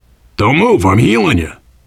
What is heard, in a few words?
heal other, voice, biker; Left 4 Dead 2; survivor